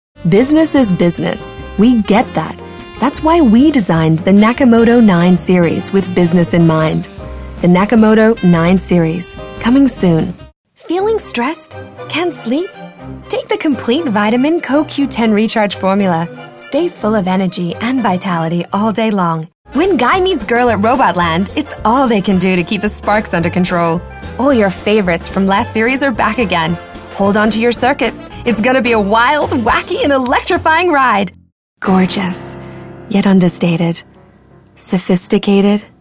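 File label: American, TV commercial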